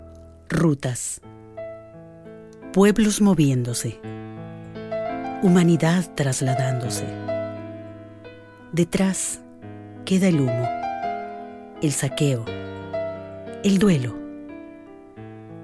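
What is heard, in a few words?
Spanish narration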